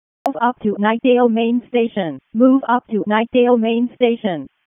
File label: EMS ALERT, p25; wake p25